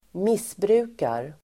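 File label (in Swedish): "missbrukar", Swedish; missbruka verb, abuse; Uttal: [²m'is:bru:kar]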